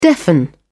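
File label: "deafen", British English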